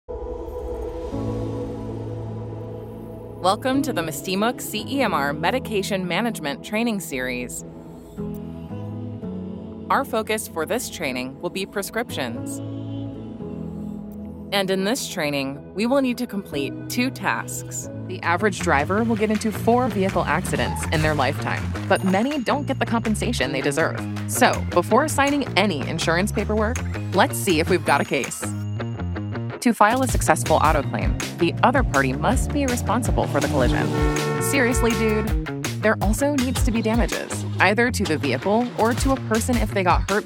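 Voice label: e-learning and corporate narration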